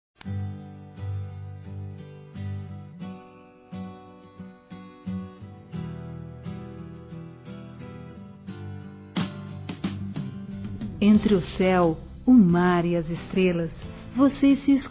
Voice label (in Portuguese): Casamento Feminino; Telemensagens; Parabenização